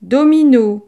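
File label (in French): "domino", French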